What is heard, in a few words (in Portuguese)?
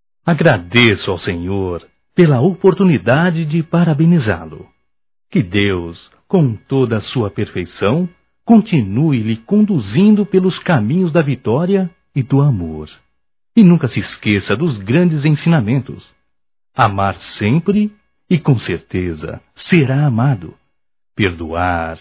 Aniversário Católico Masculino; Aniversarios; Telemensagens 2017